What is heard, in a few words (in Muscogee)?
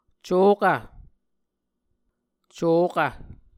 "cokv", Muscogee